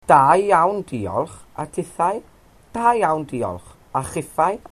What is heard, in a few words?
fine thanks, Welsh